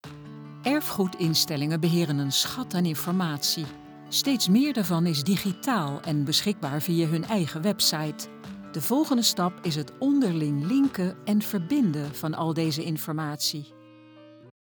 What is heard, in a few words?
explainer